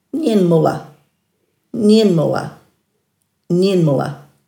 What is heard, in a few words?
"njinmula-", Dhurga